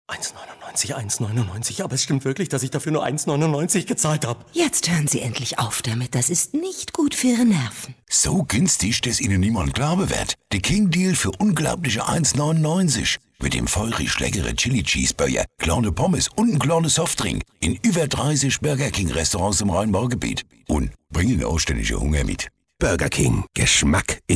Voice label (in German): dialekt hessen bayern hamburg berlin; LOFT VOICES